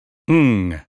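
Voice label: American phonetics sound sing; 英语音标发音（剑桥版）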